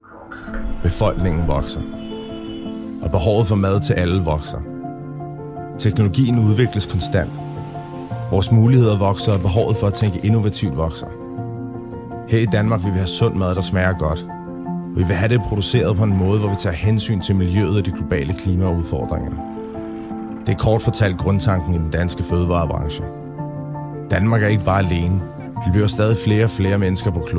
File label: Danish